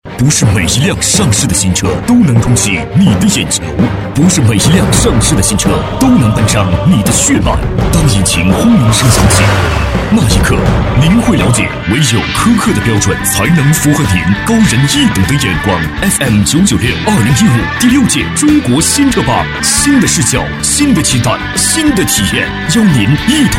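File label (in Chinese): 国语 男声 宣传片 大气浑厚磁性 沉稳; 电台汽车节目宣传片-激情有力; 新车榜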